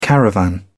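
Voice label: "caravan", English, British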